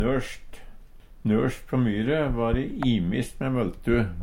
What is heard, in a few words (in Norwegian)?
norst, Numedalsmål; Nørst på myre va de so imist me møltu.; Preposisjon